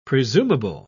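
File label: "presumable", British English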